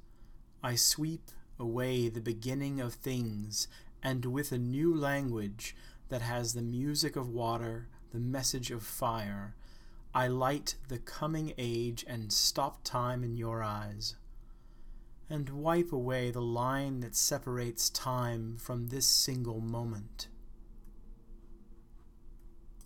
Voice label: i conquer the world spoken normal speed